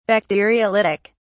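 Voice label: "bacteriolytic", British English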